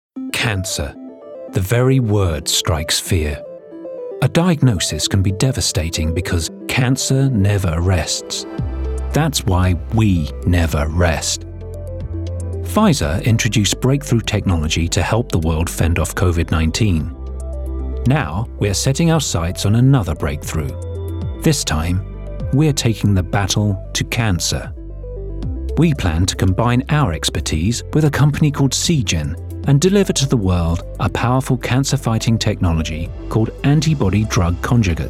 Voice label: CORPORATE